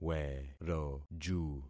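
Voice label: "weroju", concatenated syllables; Affixing experiment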